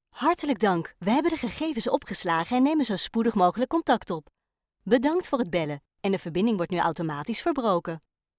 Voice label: Dutch - Flemish